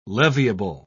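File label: "leviable", British English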